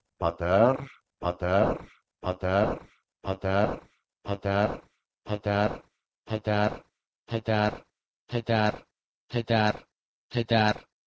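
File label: Proto-Indo-European ph2ter to Persian pedar; eastern origins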